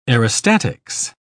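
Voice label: "aerostatics", English, uk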